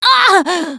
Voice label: defibrillator, russian; Producer

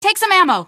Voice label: ammo, female; npc; Garry's Mod; hl2

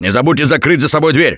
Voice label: Close The Door, russian; Gambler

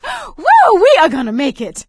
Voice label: hurrah, survivor voice; Left 4 Dead 2; producer

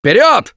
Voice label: emphatic go, russian; Gambler

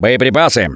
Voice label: Spot Ammo, russian, Survivor Voice; Gambler

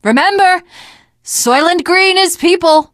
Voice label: teengirl, voice; Left 4 Dead 2; l4d1 finale

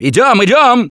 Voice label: emphatic go, russian; Gambler

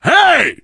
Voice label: initial meeting, survivor voice biker

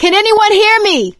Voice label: call for rescue, survivor voice; Left 4 Dead 2; producer

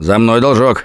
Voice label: thanks, russian; Gambler

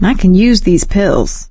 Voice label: take pills, survivor voice; Left 4 Dead 2; producer

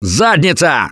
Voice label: reaction negative, russian; Gambler

